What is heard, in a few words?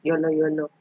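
"yoloyolo", Nigerian Pidgin, female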